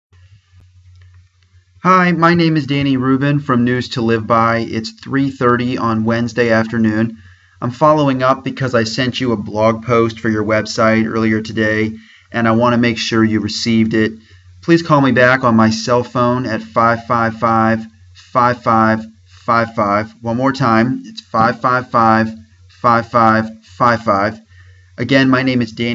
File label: voice mail